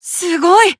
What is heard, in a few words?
Emo Praise; Disney Star Smash; Android, iOS, Mobile